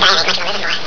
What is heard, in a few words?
chatter